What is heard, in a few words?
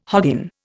"hoggin", Indian